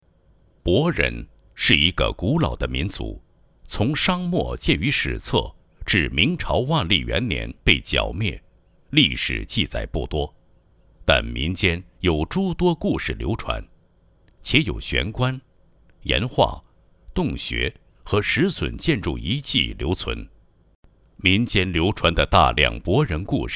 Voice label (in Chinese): 男 专题 民间传说